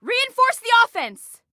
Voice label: Attack Reinforce, Female

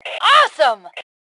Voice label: Compliment Awesome, Female; T2 Female 03

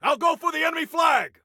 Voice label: Self Attack Flag, Soldier Male; Soldier Male 02

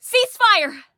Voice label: Team Cease Fire, Female; Female 02

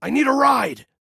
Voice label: Need Ride, Male

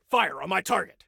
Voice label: Target Fire On My, Soldier Male; Soldier Male 02

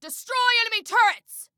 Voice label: Attack Turrets, Female

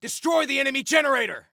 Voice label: Attack Generator, Soldier Male; Soldier Male 01

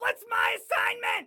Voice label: Command Assignment, Excited Guy

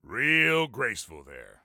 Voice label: Taunt Sarcasm, Soldier Male; Soldier Male 03

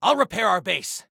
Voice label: Self Repair Base, Soldier Male; Soldier Male 01

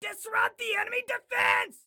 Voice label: Attack Disrupt, Excited Guy

